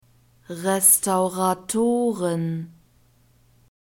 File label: "Restauratoren", German